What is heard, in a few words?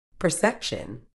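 "perception", medical English, British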